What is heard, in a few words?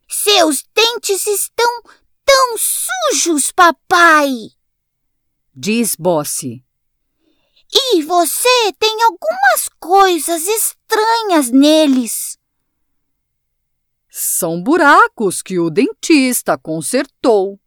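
Brazilian Portuguese, Characters; GoLocalise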